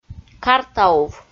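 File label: "картаув" Komi, female; kpv audio dict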